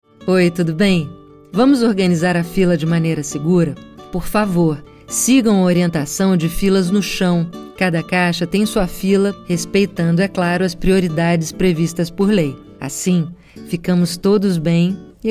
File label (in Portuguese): mensagem fila; st marche